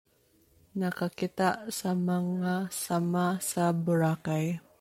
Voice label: Cebuano